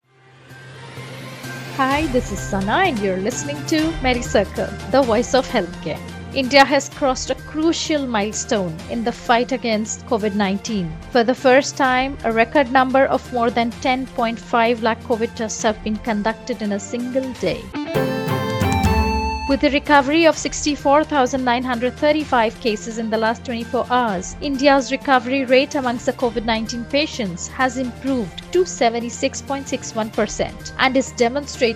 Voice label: english news